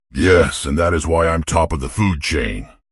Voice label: happy; fertig classic games